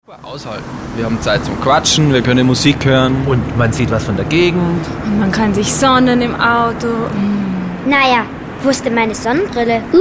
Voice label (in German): sprechszene